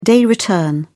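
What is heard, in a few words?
"day return", English, British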